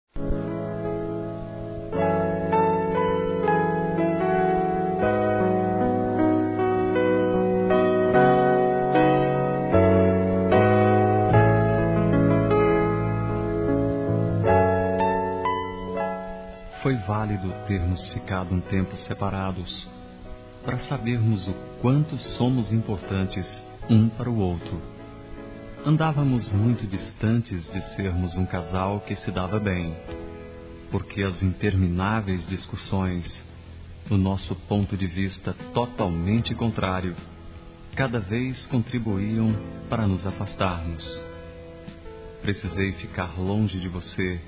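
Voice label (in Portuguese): RECONCILIAÇÃO Masculino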